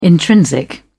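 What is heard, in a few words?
"intrinsic", English, uk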